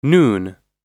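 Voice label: "noon", English; oxford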